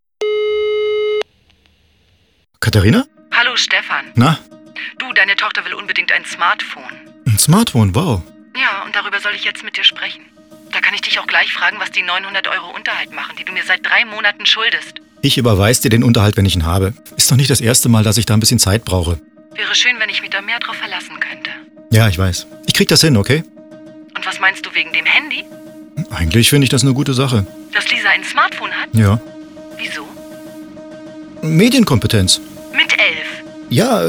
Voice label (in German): Telefonat